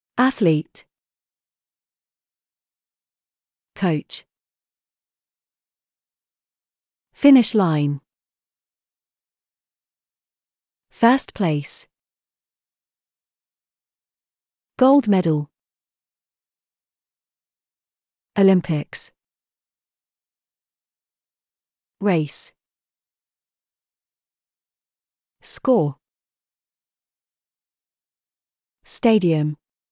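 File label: British; exercise sports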